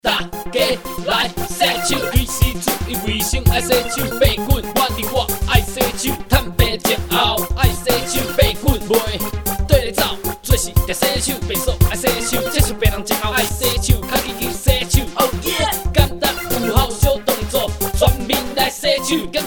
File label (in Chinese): 全民來洗手-台語版